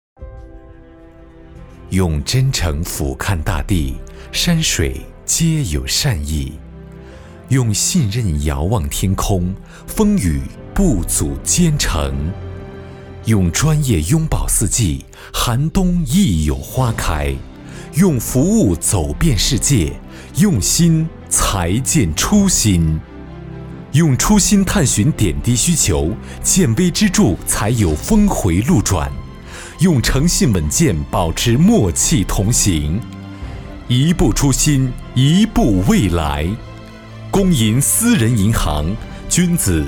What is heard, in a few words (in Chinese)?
男 专题 中银私人银行 宣传 罗列